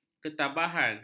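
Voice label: "ketabahan", Malay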